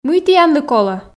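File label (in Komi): мый тіянлы колӧ, Komi, female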